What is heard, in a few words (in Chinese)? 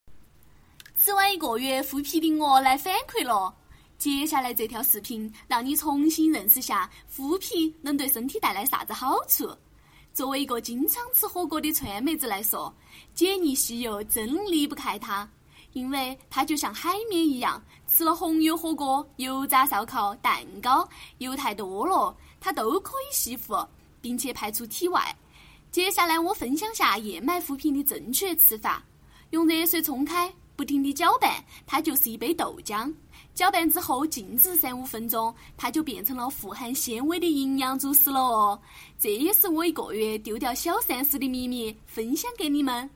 配音